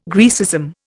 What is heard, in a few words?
"Graecism", Indian